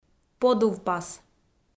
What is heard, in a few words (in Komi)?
"подувпас", Komi